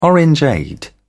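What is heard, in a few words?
"orangeade", English, British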